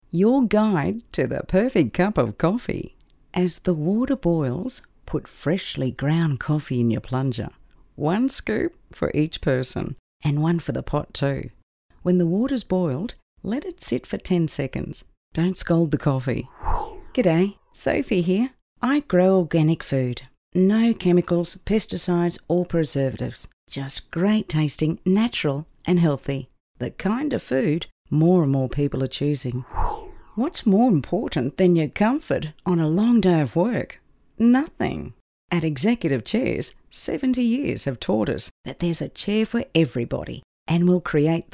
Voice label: Conversational